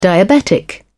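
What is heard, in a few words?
"diabetic", British English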